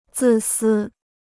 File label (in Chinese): "自私", Chinese